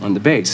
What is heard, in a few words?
LoFi Words, LoFi Vocals